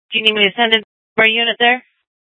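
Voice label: police dispatch, scanner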